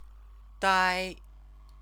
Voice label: "dai", Chinese; Chinese lessons